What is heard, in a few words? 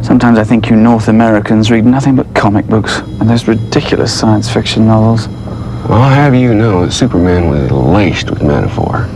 Reading Banter; trek